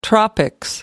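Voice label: "tropics", British English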